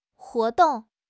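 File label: "huo2 dong4", Mandarin Chinese; HSK4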